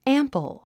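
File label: "ample", English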